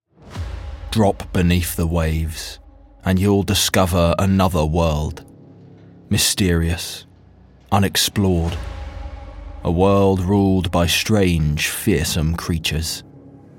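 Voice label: British English, Documentary; GoLocalise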